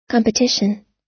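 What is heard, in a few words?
"competition", American English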